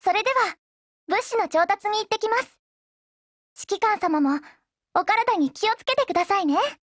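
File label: OPERATION BEGIN Japanese, Voice; GF Alarm; Danuvia 43M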